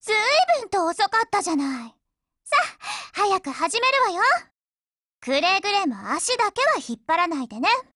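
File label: HELLO, Japanese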